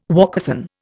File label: "walkathon", Indian